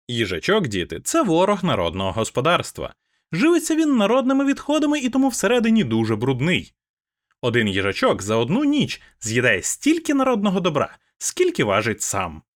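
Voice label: Siren Mini processed, microphone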